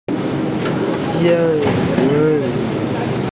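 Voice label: "ze ren", Mandarin Chinese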